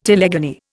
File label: "telegony", Indian